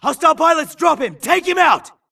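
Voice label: grunt detect Player Low Health; imc; Titanfall 2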